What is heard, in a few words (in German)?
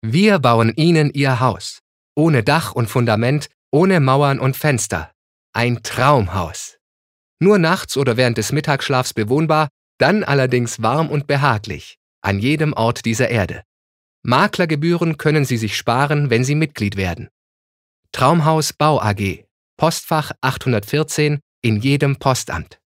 Werbung Neutral